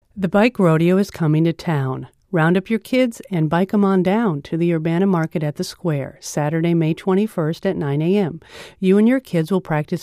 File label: bike rodeo spot fm